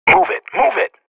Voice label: radio bot go; Source Model Packs; Counter Strike Model Pack; CS2; professional

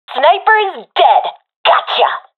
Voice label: sees sniper killed, professional fem; vo; Counter Strike Model Pack; CS:GO

